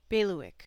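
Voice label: "bailiwick", US English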